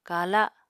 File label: "kala", Apatani